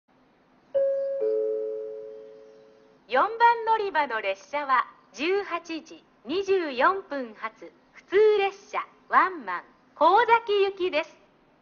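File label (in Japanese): 4番のりば停車中案内放送, 中津駅; 普通ワンマン 幸崎行き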